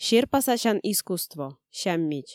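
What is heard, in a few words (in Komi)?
серпасасян искусство сяммич, Komi, female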